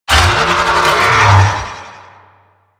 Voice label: Necramech Melee Attack, Barks; Warframe

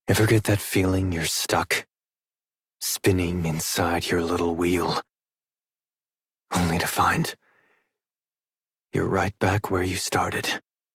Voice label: Adult, en, Dialog; Execute; Warframe; Duviri Paradox Quest

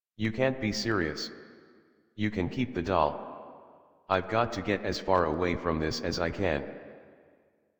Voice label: Adult, en, Dialog; Figurine; Warframe; Duviri Paradox Quest

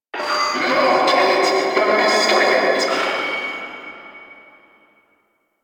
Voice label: Vocal Quest Loudspeaker, Town Escape

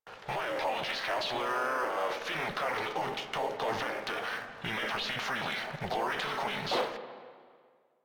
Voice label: Controller, Radio Interceptions

